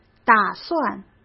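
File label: "打算", Mandarin Chinese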